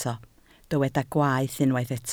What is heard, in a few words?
"gwaeth", Welsh